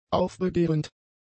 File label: "aufbegehrend", German; adjectives